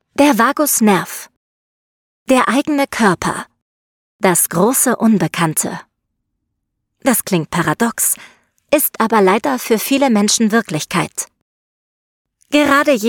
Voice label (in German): Horbuch Fachroman